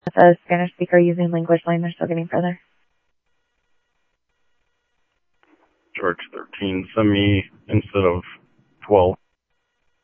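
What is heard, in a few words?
Gilbert 1 Dispatch, scanner audio; Mesa Simulcast; Glbrt1Dispat; MESA OPEN ALL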